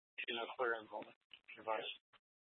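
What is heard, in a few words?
Police Department North Dispatch, scanner; Scottsdale PD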